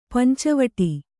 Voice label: "ಪಂಚವಟಿ", Kannada

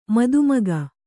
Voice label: "ಮದಮುಖ", Kannada

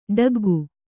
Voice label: "ಠಕ್ಕಿಕ್ಕು", Kannada

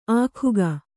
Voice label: "ಆಗ್ಗೆ", kannada

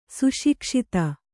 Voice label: "ಸುಶಿಕ್ಷಿತ", Kannada

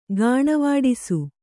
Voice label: "ಗಾಣವಾಡಿಸು", kannada

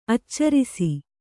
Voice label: "ಆಚರಿಸು", Kannada

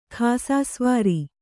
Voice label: "ಖಾಸಸವಾರಿ", kannada

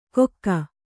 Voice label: "ಕೊಕ್ಕ", Kannada

